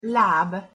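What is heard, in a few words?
"lab", Hungarian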